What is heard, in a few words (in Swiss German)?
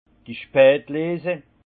"spatlese", alsace; vin; vendanges tardives